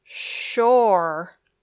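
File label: "shore", English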